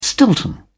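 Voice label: "stilton", British English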